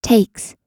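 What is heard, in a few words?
"takes", US English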